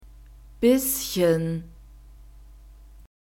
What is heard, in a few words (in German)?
"bisschen", German